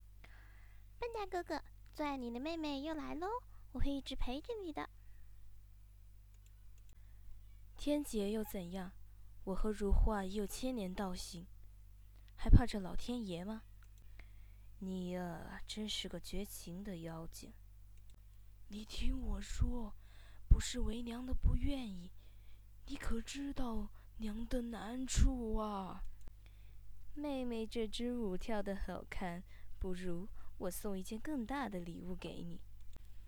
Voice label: 台词练习 女生声线

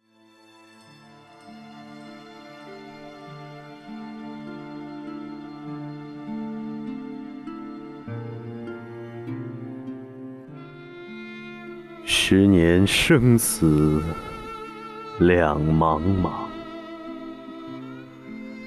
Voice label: 江城子记梦朗诵

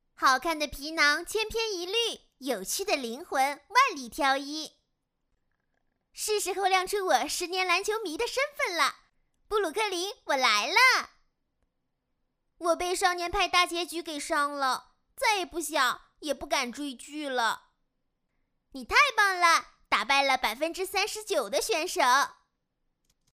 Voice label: 萝莉

